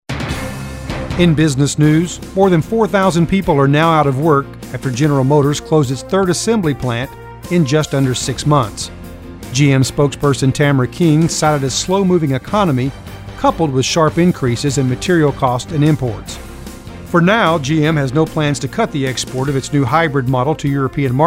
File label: Announcer